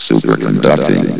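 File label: "superconducting", male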